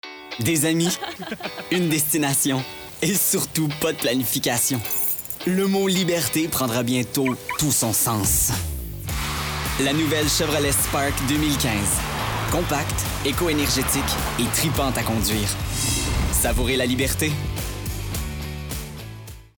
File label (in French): Publicité; Chevrolet Spark